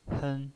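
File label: "hen", Mandarin Chinese, first tone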